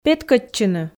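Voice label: "петкӧдчыны", Komi, female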